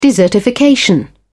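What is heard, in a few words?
"desertification", British English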